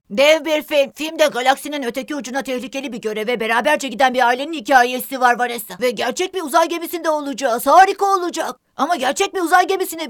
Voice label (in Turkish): animasyon